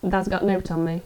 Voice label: Yorkshire thas got nowt on me, accents of english